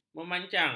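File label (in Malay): "memancang", Malay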